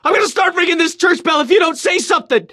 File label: radio initial broadcast